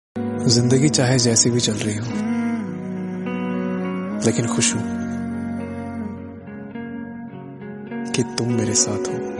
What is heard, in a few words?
Hindi Dialogue; Zindagi Chahe Jaisi Bhi Chal Rahi Ho